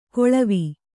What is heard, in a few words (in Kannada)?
"ಕೊಳವಿ", Kannada